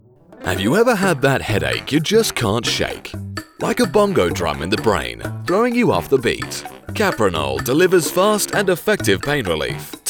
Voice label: Advert

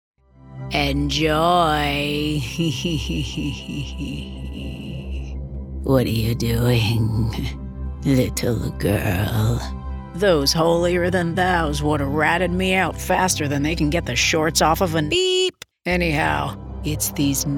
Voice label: Creep Gritty Unique Deep Old Lady

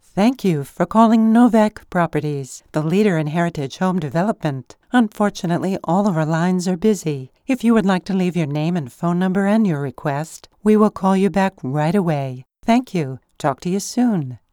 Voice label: IVR

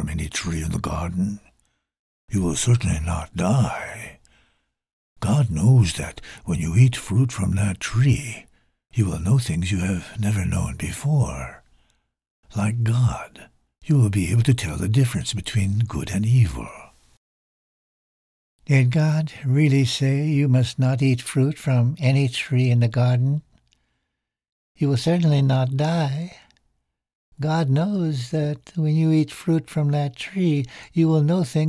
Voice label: voice of Satan drama

